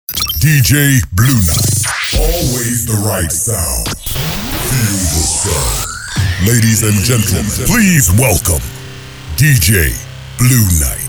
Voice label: with Effect; Please Welcome